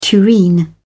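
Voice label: "tureen", British English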